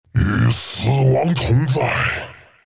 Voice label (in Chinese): ability phantasm, zh; Dota 2